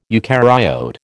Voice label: "eucaryote", American